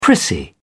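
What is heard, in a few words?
"prissy", British English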